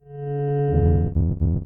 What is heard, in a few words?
Blueface Happy; oxeyewiki